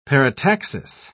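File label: "parataxis", British English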